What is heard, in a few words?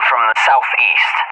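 "from the SE", English, USA; DCS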